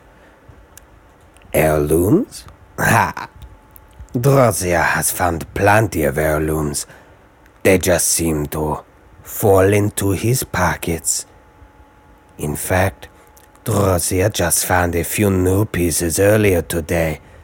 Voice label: khajiit audition, khajiit voice auditions